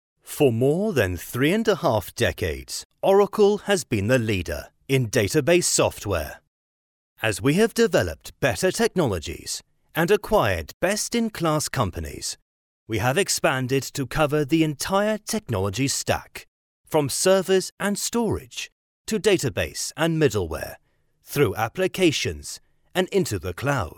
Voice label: English Male

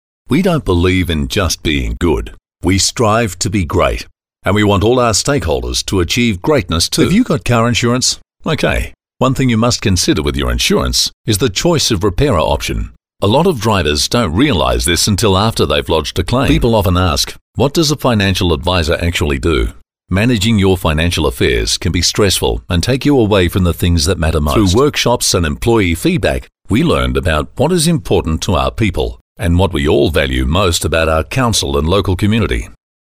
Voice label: Natural, voice-overs

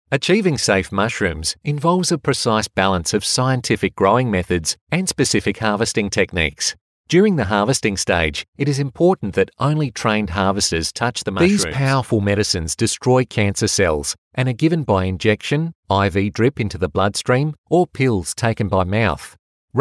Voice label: natural, voice-overs

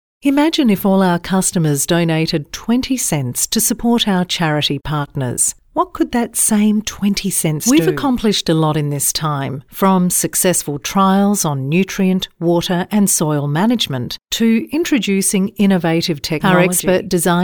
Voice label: Natural

